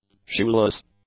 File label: "shoeless", English; adjectives